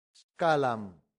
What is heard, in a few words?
"scalam", Latin; santillana